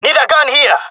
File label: request weapon, jungle male; agents